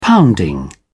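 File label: "pounding", British English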